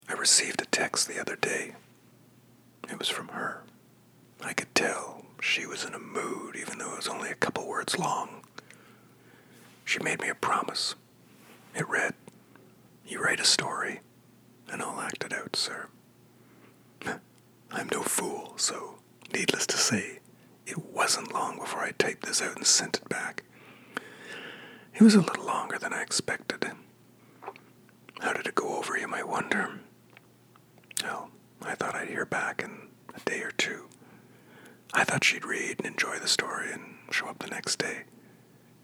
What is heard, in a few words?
ASMR